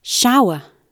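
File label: "shower" British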